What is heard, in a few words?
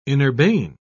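"inurbane", English, uk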